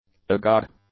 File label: "agog", English; adjectives